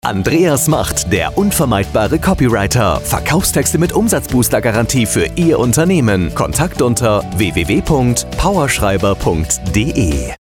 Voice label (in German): Funkspot